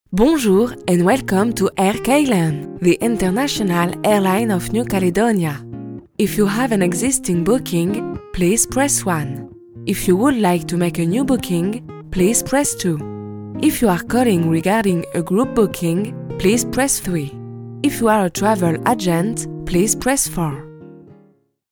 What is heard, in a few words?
Voicemail IVR